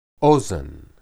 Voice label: "ozen", Hebrew